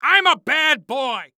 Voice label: Cartoon Loud Voice; AdobeCC